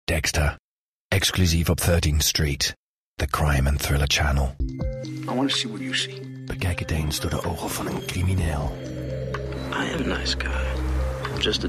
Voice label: male dutch and english with various accents tv promos